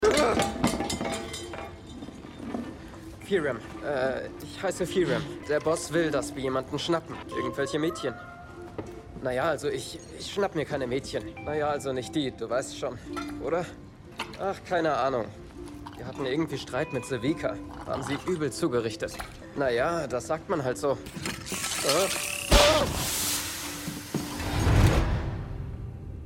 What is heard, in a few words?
Animation